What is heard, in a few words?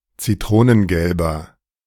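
"zitronengelber", German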